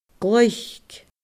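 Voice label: "gloic", Scottish Gaelic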